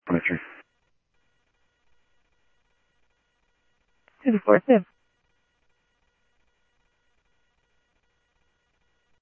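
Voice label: Sheriff West County, scanner audio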